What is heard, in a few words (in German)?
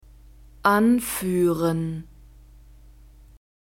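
"anführen", German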